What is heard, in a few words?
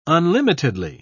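"unlimitedly", English, British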